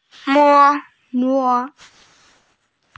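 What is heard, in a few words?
"me1", Mandarin Chinese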